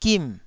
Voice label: "gim4", Taiwanese syllable

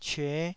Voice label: "cyue5", Taiwanese syllable

